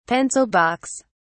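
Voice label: "pencil box", English, British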